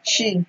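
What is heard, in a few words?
"she", Nigerian Pidgin, female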